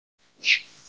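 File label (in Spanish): Voice Acting es F, Spanish, female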